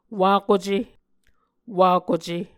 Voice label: "wakuce", Muscogee

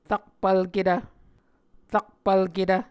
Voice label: "rakpvlketv", muscogee

